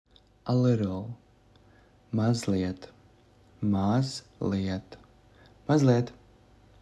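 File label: A little, Latvian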